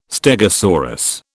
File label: "stegosaurus", British